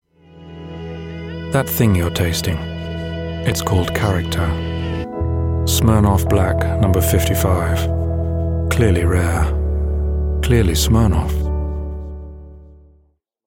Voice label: voiceover